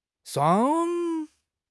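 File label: "shuang1", Mandarin Chinese; HSK3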